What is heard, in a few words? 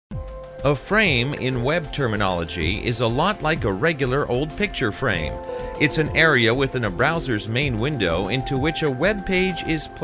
Technical Read, audio corporate